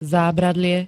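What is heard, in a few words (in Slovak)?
"zábradlie", Slovenské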